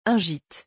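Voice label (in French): "Un gite", French; vocabulaire; le lexique des vacances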